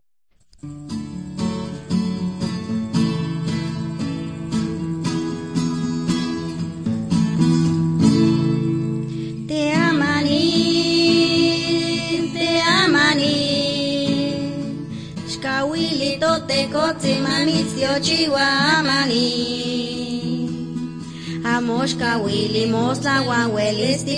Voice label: words of life nahuatl guerrero tlapa, drama